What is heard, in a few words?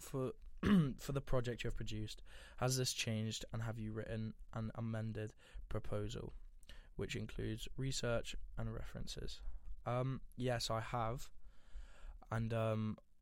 Spoken Evaluation